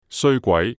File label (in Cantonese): "衰鬼" Yue